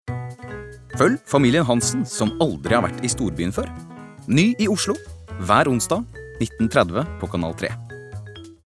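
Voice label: Norwegian promo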